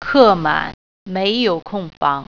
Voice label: no vacancies, Mandarin